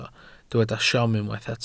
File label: "siom", Welsh